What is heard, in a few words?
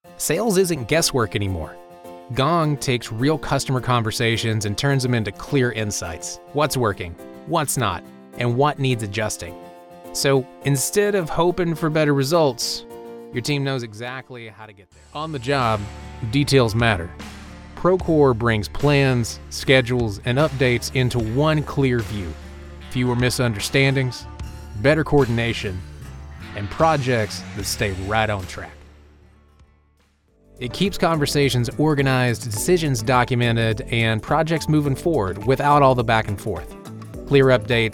Corporate Explainer